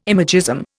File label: "imagism", Indian